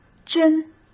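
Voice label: "真", Mandarin Chinese; hsk; chinese-flashcards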